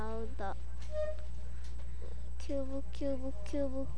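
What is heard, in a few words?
"cube", spoken word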